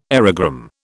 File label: "aerogramme", British